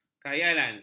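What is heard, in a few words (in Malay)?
"khayalan", Malay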